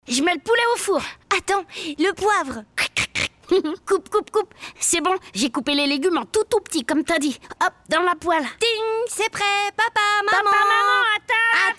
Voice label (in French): RADIO XMAS message JOUETS ENFANTS, jeune fille mixte; IKEA; attends le poivre